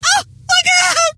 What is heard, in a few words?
"ah my god", scientist female